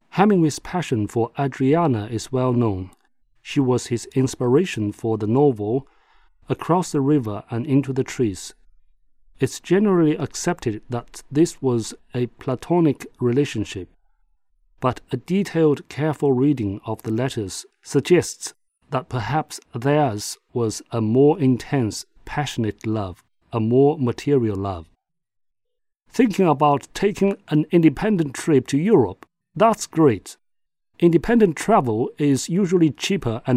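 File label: Chinese Accented English; GoLocalise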